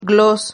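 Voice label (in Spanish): "glos", Spanish syllable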